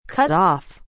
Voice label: "cut off", English